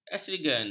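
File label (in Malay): "astrigen", Malay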